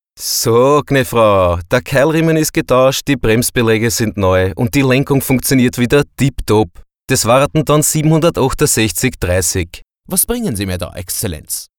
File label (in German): Dialekt Mix